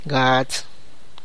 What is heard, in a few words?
"seven", Tlacochahuaya